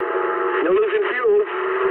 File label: eng tank damaged mood med, speech eng